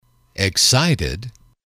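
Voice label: "excited", English, male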